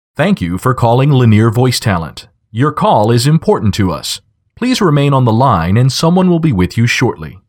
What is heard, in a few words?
On Hold